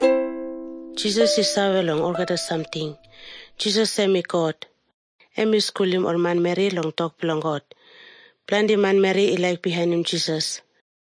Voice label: tok pisin; png nt drama; can god forgive me